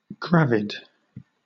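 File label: "gravid", British English; pronunciations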